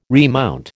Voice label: "remount", American